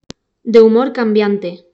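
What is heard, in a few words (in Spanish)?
"de humor cambiante", Spanish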